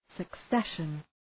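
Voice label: "succession", English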